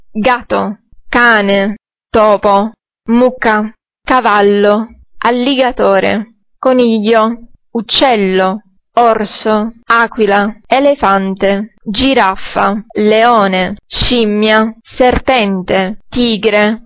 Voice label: italian animals